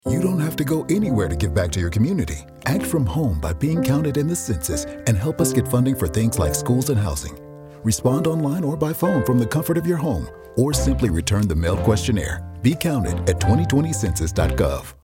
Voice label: Radio Ad